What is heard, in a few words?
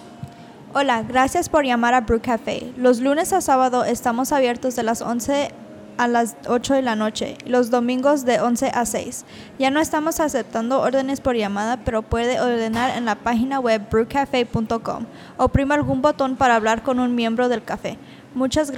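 spanish, female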